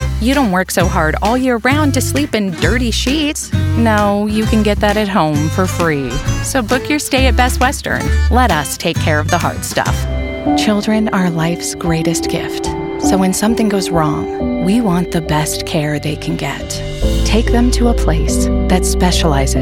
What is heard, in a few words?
Commercial